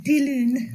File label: monday, Cornish